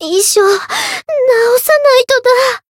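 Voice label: win barely, Japanese; melody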